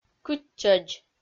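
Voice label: "кытчӧдз", Komi-Zyrian, female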